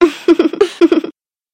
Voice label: monster succubus